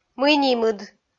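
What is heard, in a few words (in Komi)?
"мый нимыд", Komi, female; kpv audio dict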